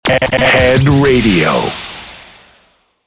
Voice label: Sweeper Short